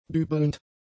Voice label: "dübelnd", German; adjectives

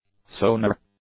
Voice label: "sonar", english; nouns

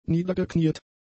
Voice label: "niedergekniet", German; adjectives

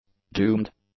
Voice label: "doomed", English; adjectives

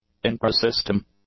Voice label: "intrasystem", english; adjectives

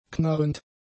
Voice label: "knarrend", German; adjectives

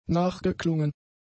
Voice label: "nachgeklungen", German; adjectives

